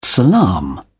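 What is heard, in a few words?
"salaam", British English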